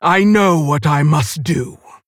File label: Female Orc Play; Garona